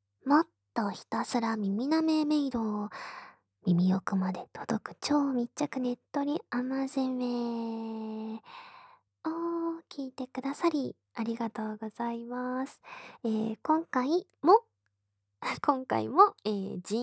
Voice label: フリートーク

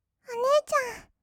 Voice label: お姉ちゃん, kawaii voice; ASMR; 二人称

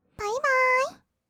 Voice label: 「バイバーイ」, kawaii voice; 挨拶

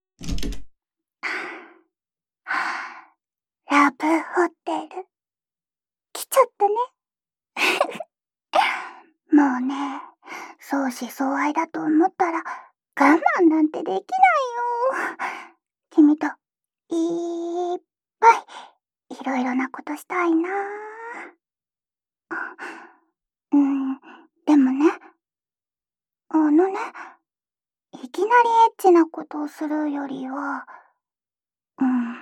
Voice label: 入室と同時に激しいディープキスと愛の囁き

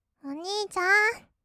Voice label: おにいちゃん, kawaii voice; ASMR; 二人称; 1200 kawaii voice!!

